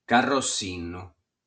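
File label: "Carrossinu", Sardinian word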